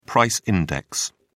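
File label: "price index", British English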